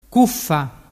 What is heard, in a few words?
"cuffa", dialettu